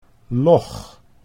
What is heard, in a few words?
"log", Dutch